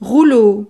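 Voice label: "rouleau", French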